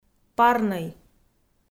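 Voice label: "парнӧй", Komi, female; audio dict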